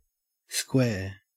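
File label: "square", Australian English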